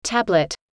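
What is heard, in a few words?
"tablet", English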